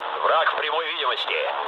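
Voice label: Russian enemy detected mood low, speech; War Thunder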